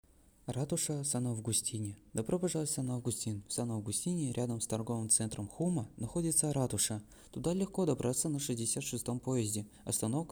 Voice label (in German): Russisch; Rathaus Sankt Augustin